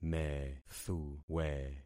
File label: "methuwe", concat syllables; Affixing experiment